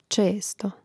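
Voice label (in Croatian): "često", Hrvatski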